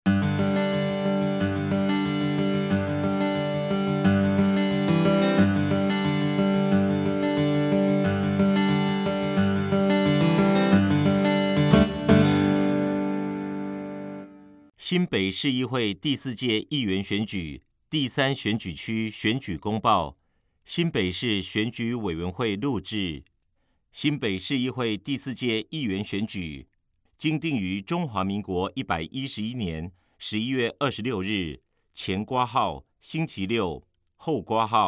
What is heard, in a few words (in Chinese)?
國語; 新北市; 市議員; 第3選區前言; 有聲公報